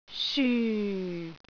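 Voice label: "syu4", Cantonese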